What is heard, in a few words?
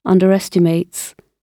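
"underestimates", British English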